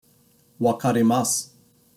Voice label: "wakari-masu" Japanese